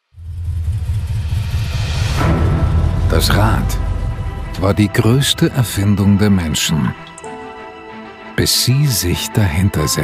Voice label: werbefilm

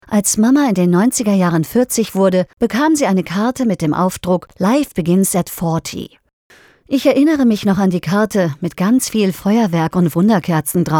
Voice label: erwachsene menschen horbuch

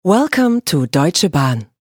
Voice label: Ansage eng

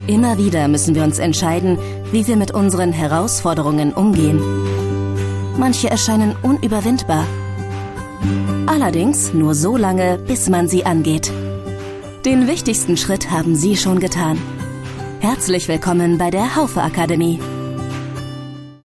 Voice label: Imagefilm emotional motivierend

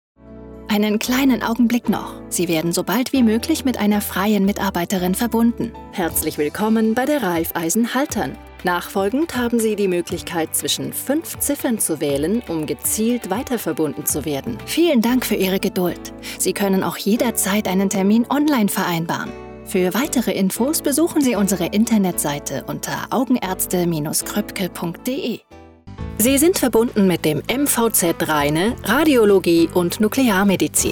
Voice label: telefonserviceansagen sprecherin; Berlin; Muttersprache Deutsch

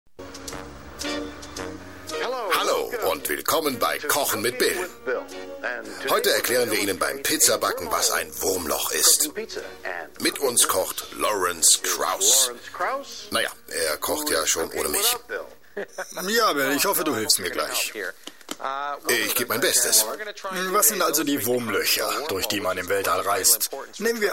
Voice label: overvoice doku; enterprise; als laurence kraus

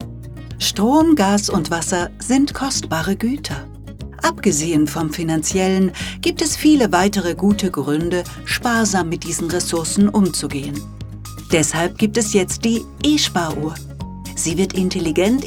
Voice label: Erklärfilm; esparuhr

